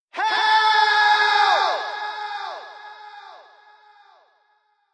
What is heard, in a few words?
Help Echo, Male, yelling